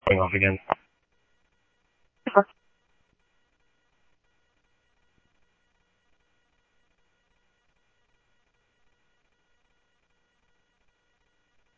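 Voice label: police department, scanner audio trunk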